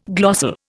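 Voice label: "glossary", Indian; Dictionary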